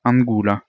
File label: "angula", Proto-Germanic